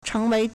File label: "cheng2wei2", Chinese